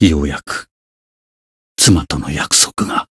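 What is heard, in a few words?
Voice retire Japanese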